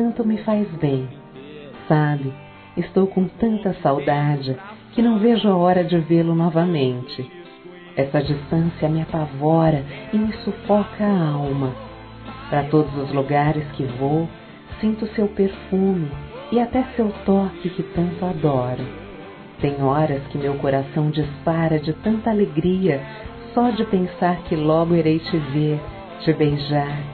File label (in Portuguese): Vento Feminino, Telemensagens Românticas